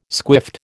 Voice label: "squiffed", American